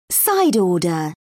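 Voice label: "side order", British English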